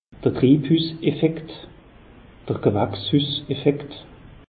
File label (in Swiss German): "triibhuss effekt", alsace; meteo